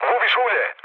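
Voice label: Serbian damaged oil mood low, speech; War Thunder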